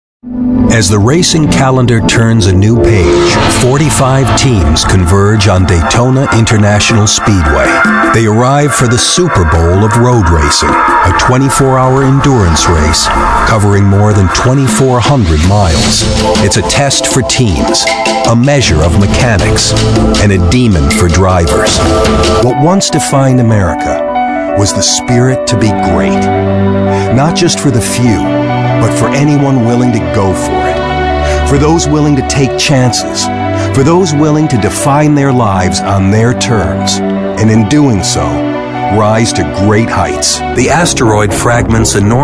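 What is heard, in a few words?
narration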